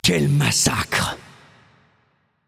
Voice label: Announcer French Spree Kills; Paladins

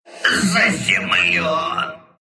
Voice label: death ru; dota2

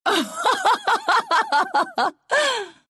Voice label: level up, ru; dota2

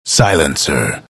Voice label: announcer pick silencer; Dota 2; bastion announcer

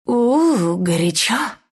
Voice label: announcer followup pos, ru; Dlc lina announcer; Dota 2

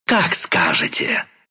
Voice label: move, ru; Dota 2

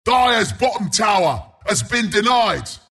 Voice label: announcer deny dire bot; Bristleback announcer

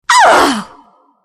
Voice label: anger; Dota 2; crystalmaiden

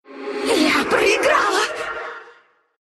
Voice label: lose, ru; dota2

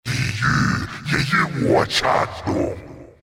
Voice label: respawn, zh; Doom; Dota 2

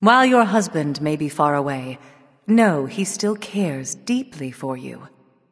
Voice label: Taunt Directed Change; Hera; Smite

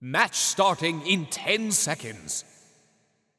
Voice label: Announcer Town Crier Match Begin; Paladins

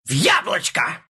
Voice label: homing missile impact, ru; dota2

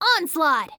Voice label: Announcer Game Mode Onslaught; Evie

